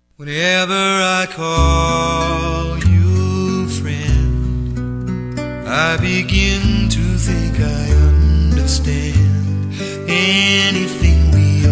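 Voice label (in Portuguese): ROMANTIC TE AMO FEM, Telemensagens; Amor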